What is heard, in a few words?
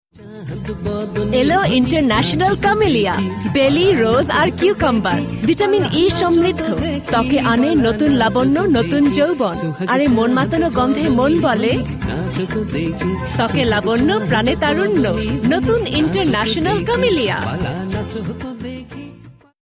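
Bengali female advert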